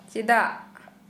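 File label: Santali, female, why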